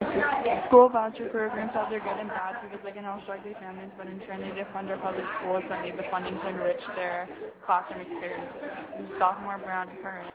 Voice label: Audio message